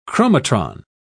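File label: "chromatron", British English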